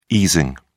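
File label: "easing", British English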